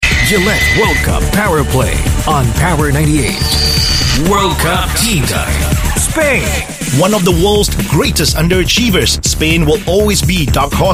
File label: Asian English; Gillette World Cup Spain